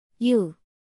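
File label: "ㅠ", Korean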